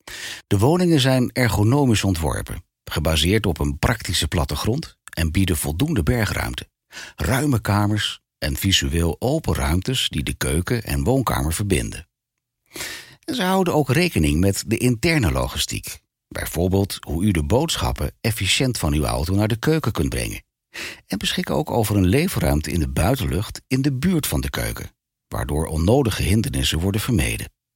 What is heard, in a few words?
dutch e-learning